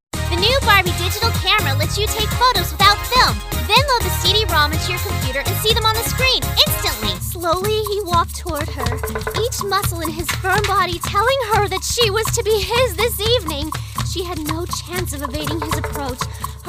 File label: Commercial